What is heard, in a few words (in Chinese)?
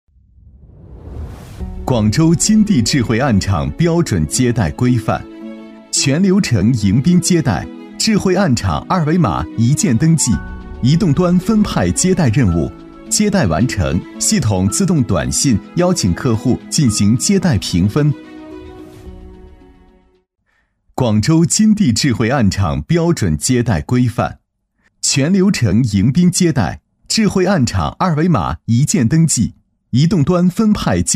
男 科技宣传 年轻科技; 广州金地智慧案场标准化接待; 年轻厚重